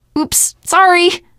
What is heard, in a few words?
sorry, teen girl voice; source engine; left 4 dead 2; survivor